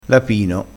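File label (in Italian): "lapino", dialettale crespinese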